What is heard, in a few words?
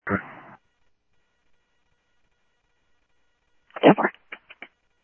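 Sheriff West County, scanner audio trunk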